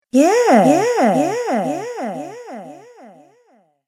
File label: "Yeah" With Delay Female Voice; Recorded Voice Sound Effects